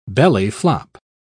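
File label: "belly-flop", British English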